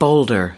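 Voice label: "boulder", US English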